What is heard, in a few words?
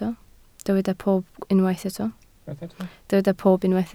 "pob", Welsh